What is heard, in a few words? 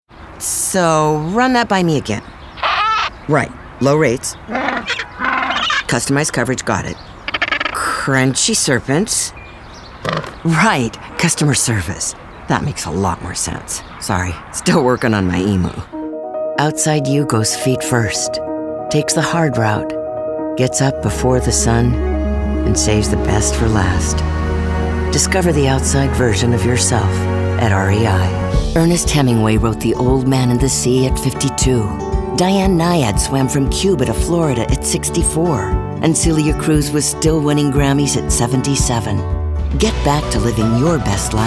Commercial